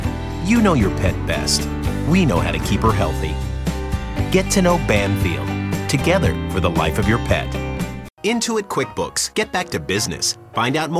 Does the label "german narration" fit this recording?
No